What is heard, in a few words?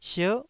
"sier9", Taiwanese syllable